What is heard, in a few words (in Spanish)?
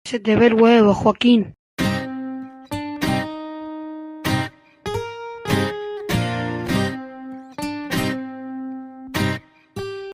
me pican los cocos vocoded